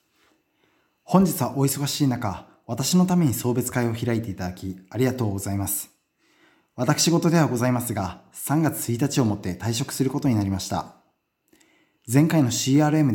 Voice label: Farewell speech, Japanese; farewell speech in japanese at work